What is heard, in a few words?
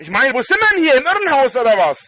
angry; spackenmobs